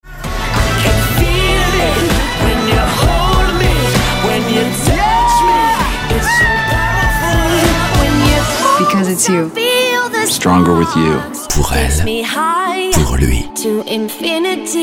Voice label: sensuous, French voice over; Emporio Armani